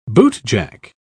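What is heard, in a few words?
"bootjack", British English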